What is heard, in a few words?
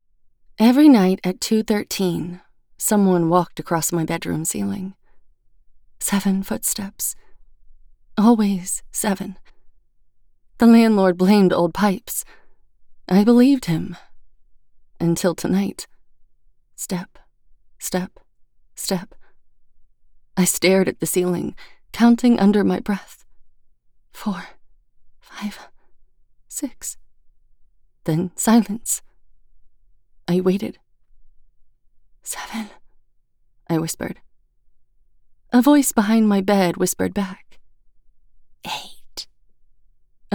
Horror Psychological 1st Person POV